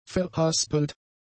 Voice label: "verhaspelt", German; adjectives

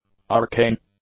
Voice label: "arcane", English; adjectives

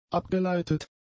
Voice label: "abgeleitet", German; adjectives

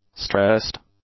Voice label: "stressed", English; adjectives

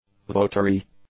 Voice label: "votary", English

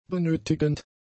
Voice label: "benötigend", German; adjectives

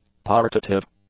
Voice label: "partitive", English; adjectives

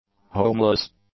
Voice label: "homeless", English; adjectives

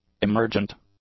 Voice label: "emergent", English; adjectives

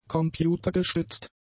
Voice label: "computergestützt", German; adjectives

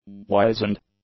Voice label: "wizened", english; adjectives

